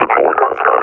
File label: reconnoiter start, officer